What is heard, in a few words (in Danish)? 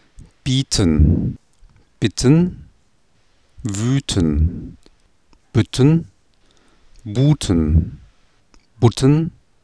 tysk bieten bitten; [ˈbi:tən ˈbɪtən ˈvy:tən ˈbʏtən ˈbu:tən ˈbʊtən]